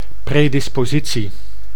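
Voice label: "predispositie", Dutch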